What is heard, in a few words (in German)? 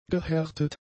"gehärtet", german; adjectives